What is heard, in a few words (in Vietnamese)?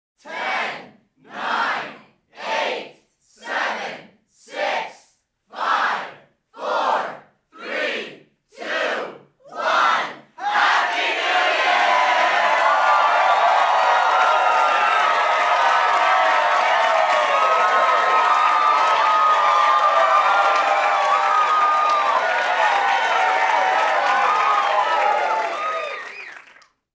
Dem nguoc Chuc mung nam moi